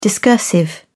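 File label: "discursive", British English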